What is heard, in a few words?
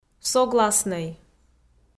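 "согласнӧй", Komi-Zyrian, female; kpv audio dict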